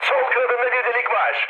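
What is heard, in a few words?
Turkish left wing, mood high, speech; War Thunder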